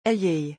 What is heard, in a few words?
"ayez", French